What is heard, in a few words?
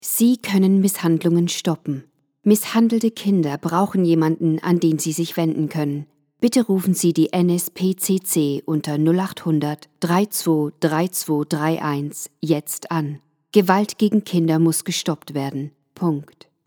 Charity Appeal German